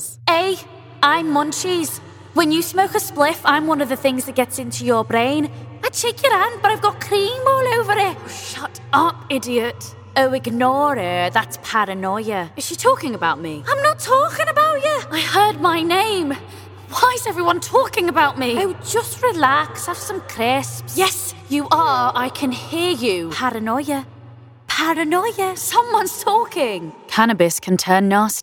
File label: Scouse